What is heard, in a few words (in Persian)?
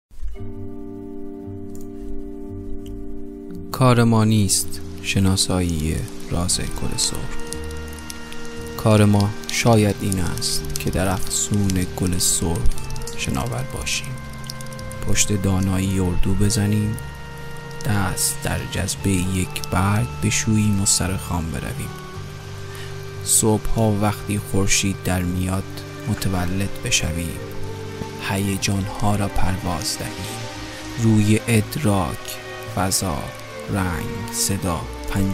دکلمه; کار ما نیست شناسایی; gol sorkh